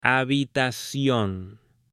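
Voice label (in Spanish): "HABITACION", hombre; MUEBLES; AppLenguaje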